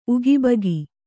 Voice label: "ಉಗಾಭೋಗ", Kannada

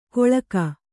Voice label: "ಕೊಳ್ಕ", Kannada

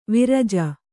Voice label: "ವಿರಜ", Kannada

